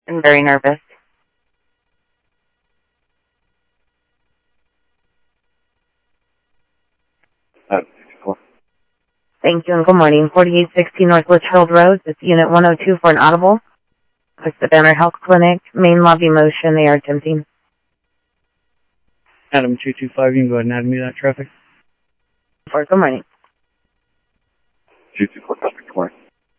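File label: Sheriff East County, scanner audio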